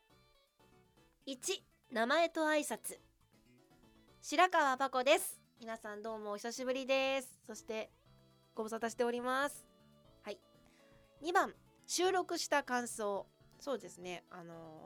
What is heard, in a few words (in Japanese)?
フリートーク